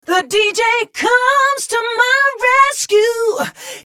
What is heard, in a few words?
chorus